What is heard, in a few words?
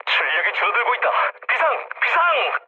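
Korean damaged engine mood low, speech; War Thunder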